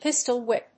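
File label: "pistol-whip", English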